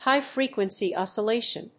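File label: "High-Frequency Oscillation", English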